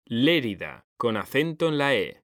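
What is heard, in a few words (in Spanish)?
"Lérida", Spanish, male; mekanta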